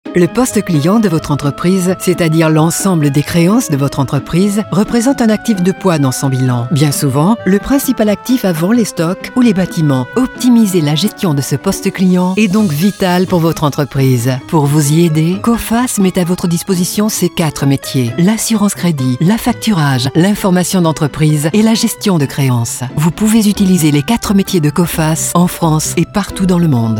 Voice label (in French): Pub Radio; Coface